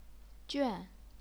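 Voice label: "juan", Chinese, fourth tone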